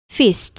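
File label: "fist", English word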